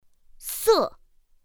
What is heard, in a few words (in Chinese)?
"sè", pinyin; pinyin2; pinyinji